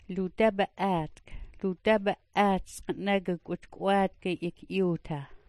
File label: "ludaabaaatk", smalgyax